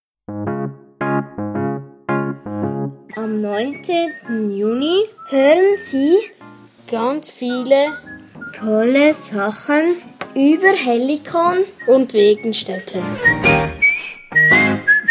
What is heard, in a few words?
Jingle; Hellikon